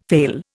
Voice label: "fail", Indian

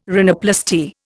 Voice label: "rhinoplasty", Indian

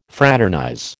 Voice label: "fraternize", American

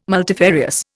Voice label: "multifarious", Indian

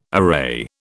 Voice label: "array", British

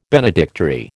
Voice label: "benedictory", American

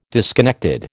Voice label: "disconnected", American

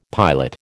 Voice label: "pilot", American

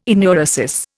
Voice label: "enuresis", Indian

